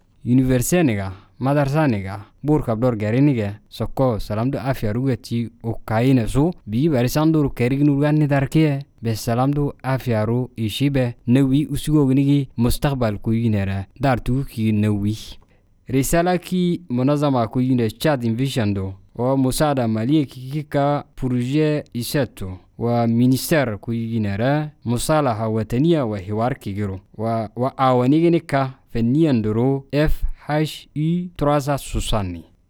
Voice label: Zakhawa Message